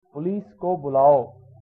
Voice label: police, hindi